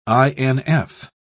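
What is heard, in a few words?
"inf.", British English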